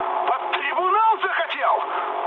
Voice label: ru player killed ally mood med; War Thunder

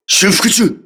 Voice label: repairing, voice message, crew ships japanese

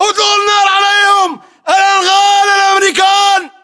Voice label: order attack infantry, battlechatter, english

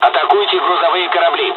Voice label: dialogs russian; War Thunder

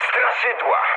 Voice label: enemy detected mood low, speech pol; War Thunder

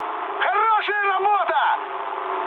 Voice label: player killed enemy, mood high, speech ru; War Thunder

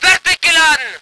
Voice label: voice ger vehicle

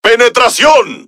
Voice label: Es Speech Hit Confirmation; World Of Warships

